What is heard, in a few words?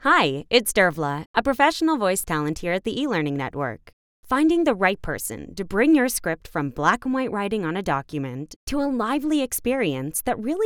elearning